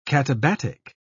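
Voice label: "katabatic", British English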